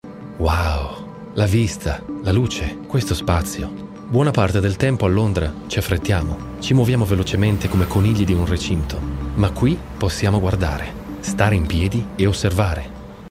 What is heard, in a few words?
Italian; London